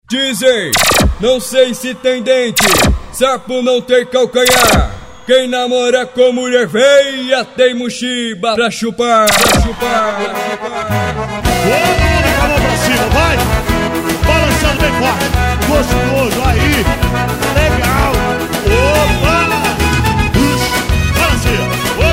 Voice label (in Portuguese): locutor verso